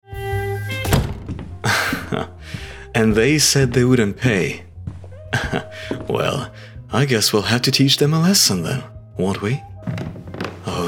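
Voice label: Character Natural, English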